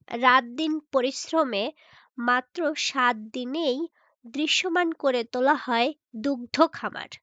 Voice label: Female